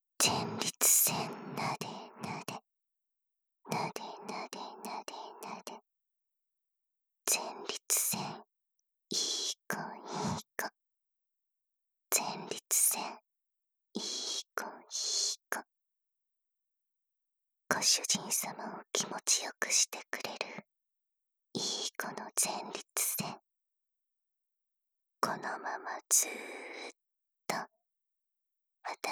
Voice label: 囁き前立腺責めループ, ASMR

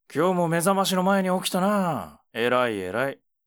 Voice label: mezamashi, ASMR

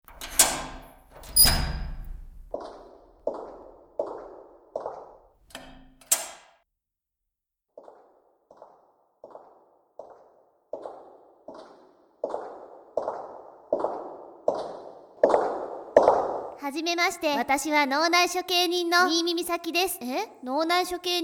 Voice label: 催眠導入, 全編左右音声版; 脳内処刑の宣告

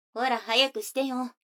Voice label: 女の子 台詞

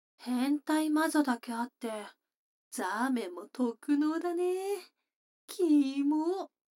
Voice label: 女の子 台詞, ASMR

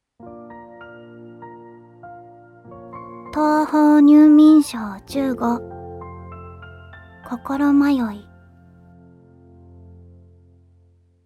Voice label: タイトルコール

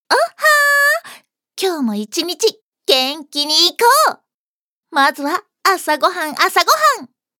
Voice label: ハイテンション, ハイテンションな女の子

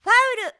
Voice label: ファウル, スポーツ少女

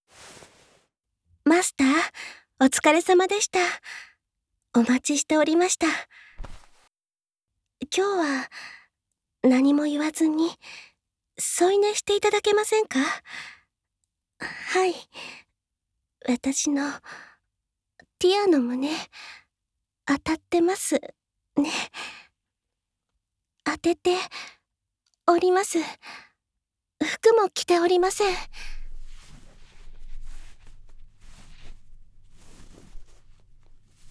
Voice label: 添い寝, ASMR

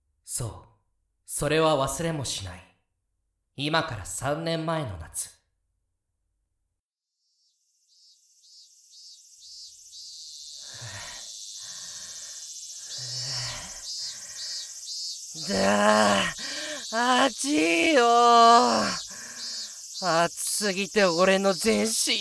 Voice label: おまけボイス キス編, 水音・射精音無

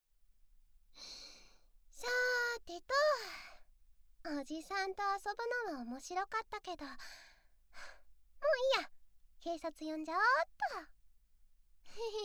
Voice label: 優しいお母さん 背景音効果音無し; また遊ぼうね

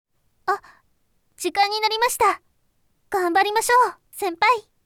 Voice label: お知らせボイス

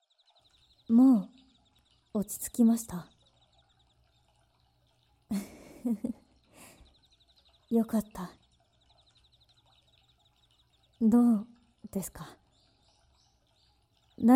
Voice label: 膝枕・耳のマッサージ・耳かき, ASMR

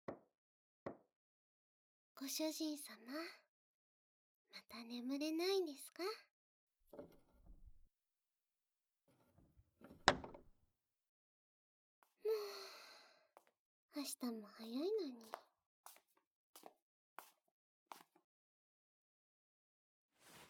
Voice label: オノマトペで寝かしつけ♪, ASMR

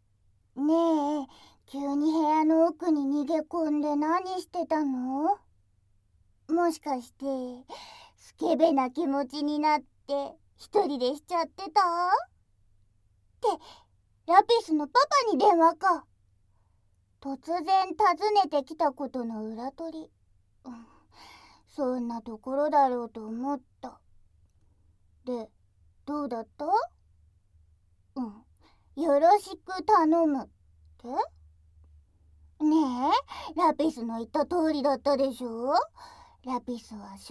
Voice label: 淫語甘々キスフェラ調教, ASMR; 効果音なし

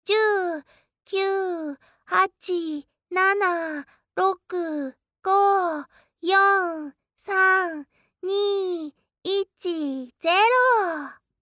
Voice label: 10から0へカウント; アニーちゃん

